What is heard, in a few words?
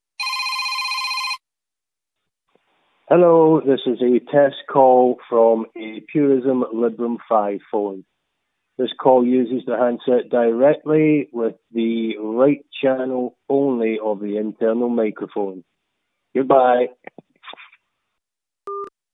Test Call, Handset direct with right channel only mic; Librem 5